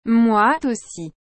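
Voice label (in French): "Moi aussi", French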